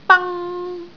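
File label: "bang1", Cantonese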